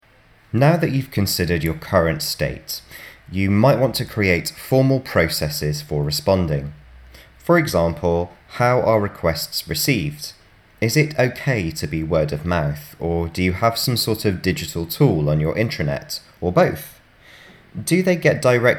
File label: Narration; Radius